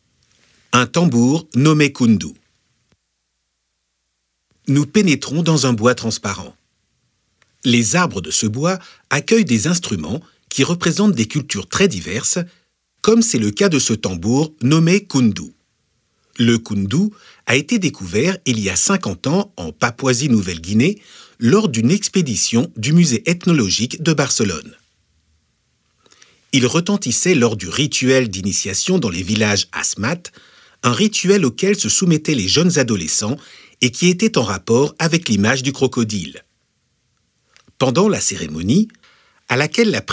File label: audioguia french; delamusica